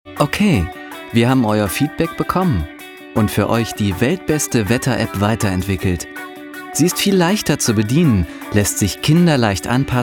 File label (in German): Werbung App